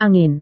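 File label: "angin", Indonesian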